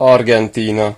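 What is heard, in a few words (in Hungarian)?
"argentina", Hungarian; gcompris; geography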